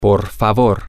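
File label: please, Spanish, male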